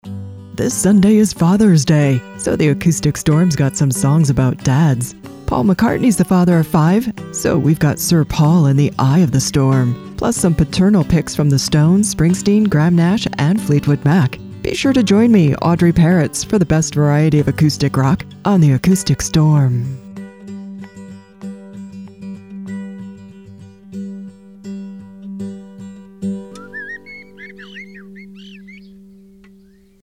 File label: PROMO; Acoustic Storm; USRN-FM; kkcl-am